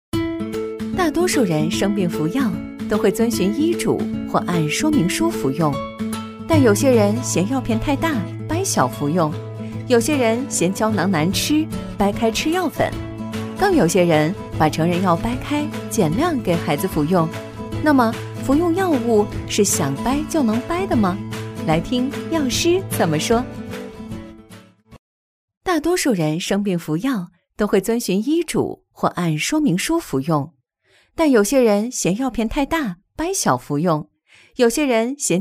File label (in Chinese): 女 科普解说 明亮活泼; 服药注意点; MG飞碟说配音